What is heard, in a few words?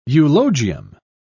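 "eulogium", British English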